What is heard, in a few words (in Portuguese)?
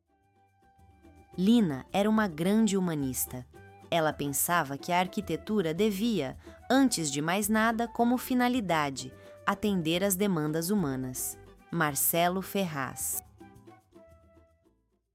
narracao; foto citacao marcelo